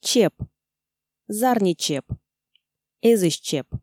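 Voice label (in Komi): чеп (зарни, эзысь), Komi, female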